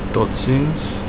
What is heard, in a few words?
goodbye, Afrikaans